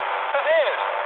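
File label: German bomb failure mood med, speech; War Thunder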